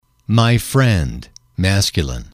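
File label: "my friend", English, male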